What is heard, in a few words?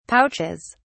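"pouches", British English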